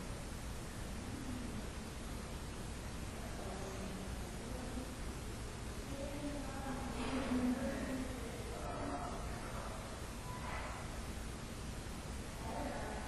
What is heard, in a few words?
Unexplained Whisper; Case 023 Major Graham Mansion; Childrens Room; Olympus Plug